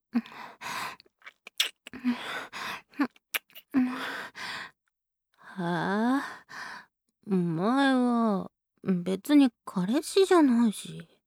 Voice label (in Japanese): フリートーク; ASMR